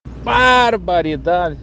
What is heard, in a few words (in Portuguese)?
baaaaarbaridade